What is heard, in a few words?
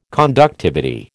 "conductivity", American